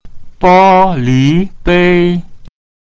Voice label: "bo1 li2 bei1", Mandarin; a glass

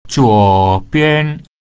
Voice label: "zuo3bian1", Chinese; left

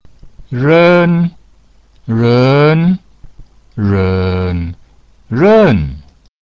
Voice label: ren, pinyin

